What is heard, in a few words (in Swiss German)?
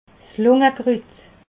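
"lungekrut", Alsatian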